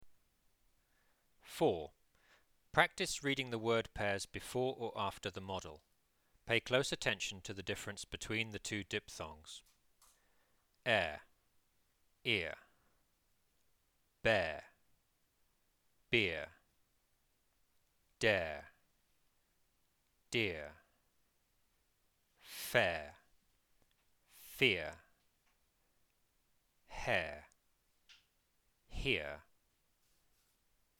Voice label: rp vowels